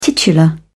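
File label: "titular", British English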